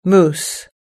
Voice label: "moose", us-english